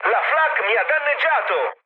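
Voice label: Italian damaged aa mood med, speech; War Thunder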